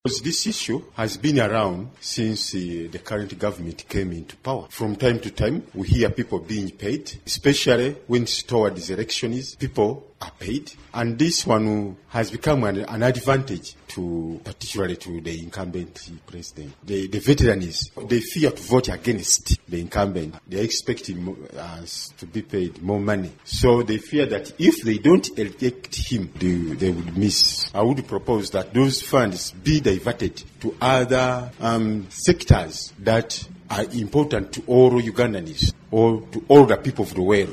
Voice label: english